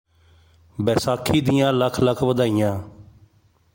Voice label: Punjabi Happy Baisakhi; Greetings